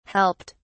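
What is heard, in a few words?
"helped", British English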